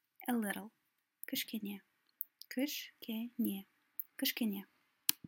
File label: A little, kazakh